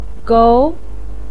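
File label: "gou", Mandarin Chinese, second tone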